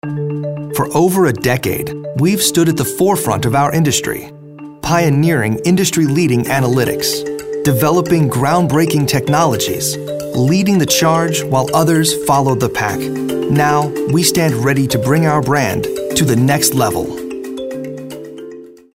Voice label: Inspirational

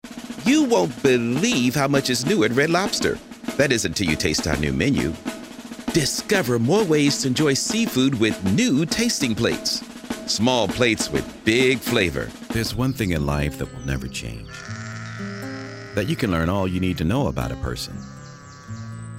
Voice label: Commercial

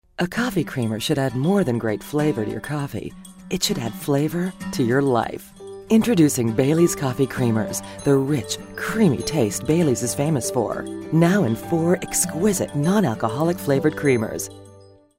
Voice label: smooth and mellow

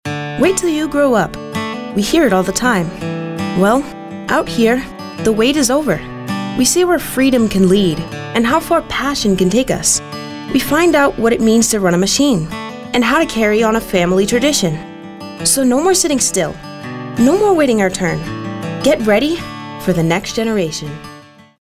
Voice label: kid next door

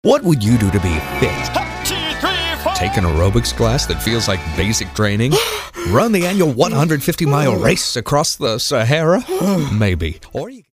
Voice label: anti announc

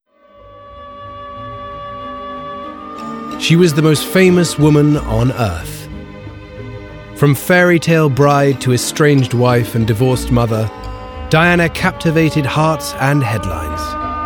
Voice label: Narrative; Narrative Reel

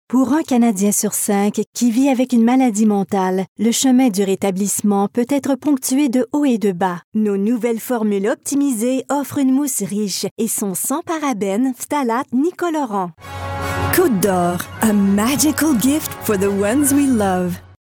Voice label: COMMERCIAL SOFT ENERGY

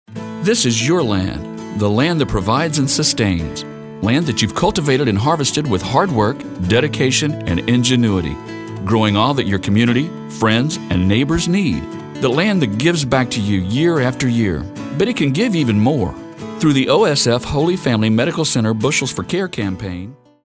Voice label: caring

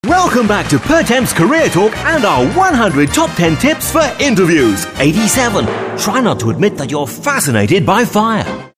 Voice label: game show

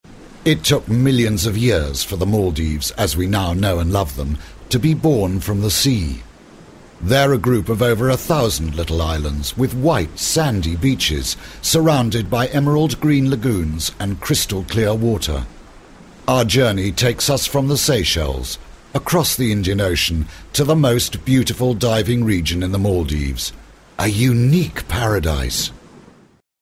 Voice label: narrative

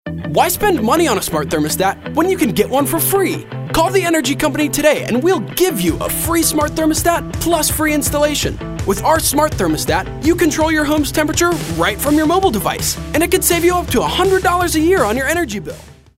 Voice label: high energy

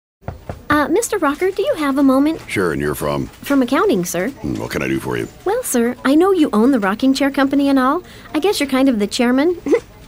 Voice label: deadpan

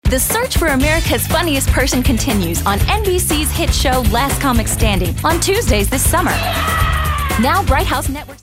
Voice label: upbeat

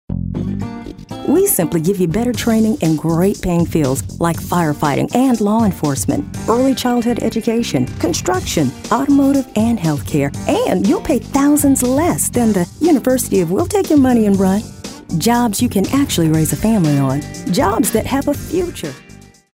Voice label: anti announcer

